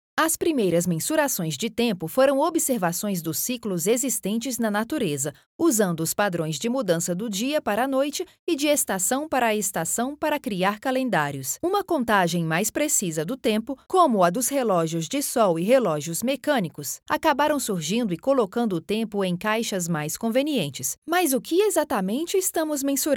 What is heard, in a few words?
portuguese brazilian e-learning